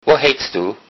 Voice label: name, low saxon